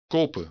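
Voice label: "kopen", Dutch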